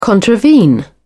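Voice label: "contravene", British English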